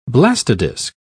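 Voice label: "blastodisc", British English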